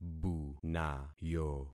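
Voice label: "bunayo", concatenated syllables; Affixing experiment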